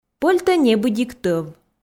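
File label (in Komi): пӧльтӧ небыдик тӧв, Komi, female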